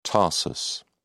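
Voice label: "tarsus", British English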